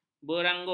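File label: "berangus", Malay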